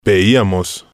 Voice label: "veiamos", spanish